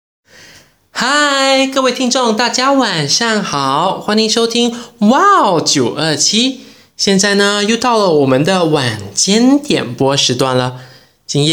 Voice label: Mandarin Voice Sample, Male; China

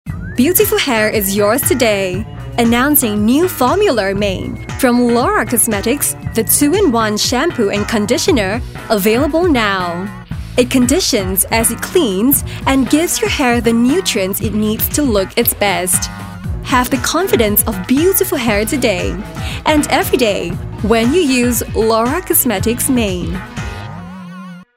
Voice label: Asian English Shampoo

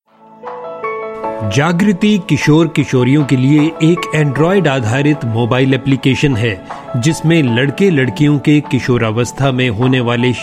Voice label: Hindi Promo

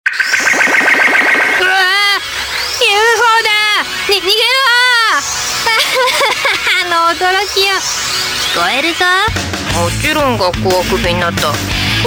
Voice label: Japanese Voice Characters, Japanese Female

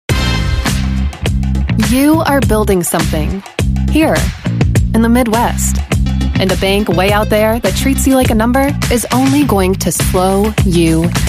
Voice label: US English Commercial; Associated Bank

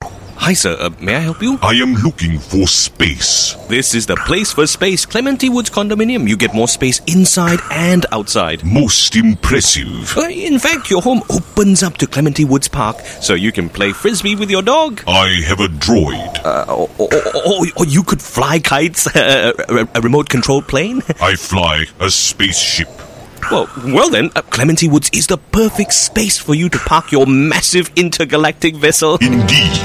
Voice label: Asian English ALL VOICES; CLEMENTI WOODS

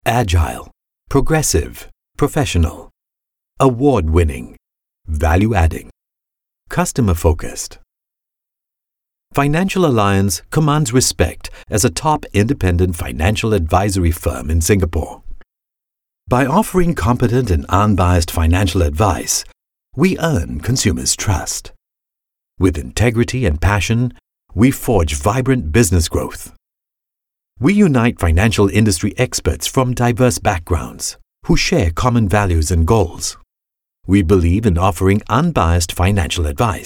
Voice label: Asian English Corporate VO Only

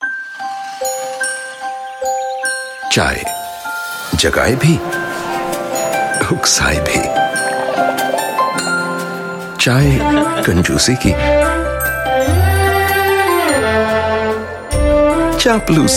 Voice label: Indian Urdu, Male; Tetra Pak

